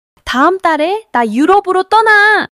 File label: excitement